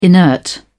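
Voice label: "inert", British English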